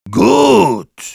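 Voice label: Heavy good job, German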